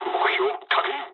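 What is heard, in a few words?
near waypoint mood med, speech japanese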